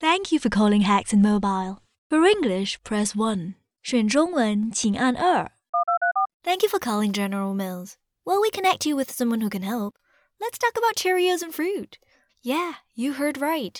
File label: Telephoney